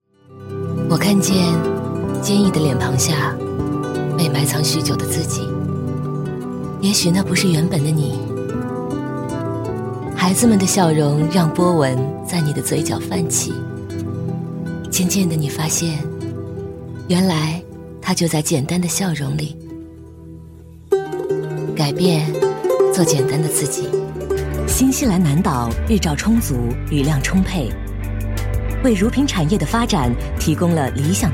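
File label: F, Mandarin, female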